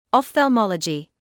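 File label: "ophthalmology", British English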